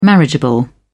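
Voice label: "marriageable", British English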